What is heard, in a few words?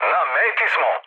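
Serbian near waypoint mood med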